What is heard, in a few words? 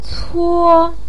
"cuo1", Chinese pinyin voice